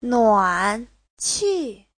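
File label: "nuǎnqì", Chinese; Giáo trình Hán ngữ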